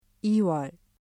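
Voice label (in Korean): "이월", Korean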